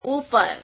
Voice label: gujarati above; ઉપર [uper]; Prepositions

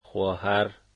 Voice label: "sister", Dari

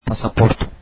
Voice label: passport, turkish

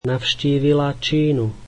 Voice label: slovak she visited China

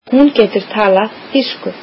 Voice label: icelandic she can speak German

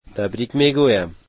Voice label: "Congratulations", dari

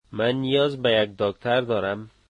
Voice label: I need a doctor, dari

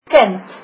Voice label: child, afrikaans

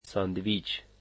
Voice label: dari sandwich

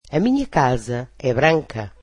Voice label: portuguese my house is white

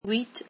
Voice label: "eight", French

